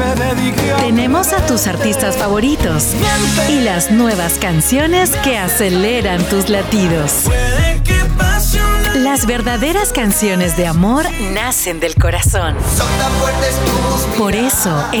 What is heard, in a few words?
Radio Imaging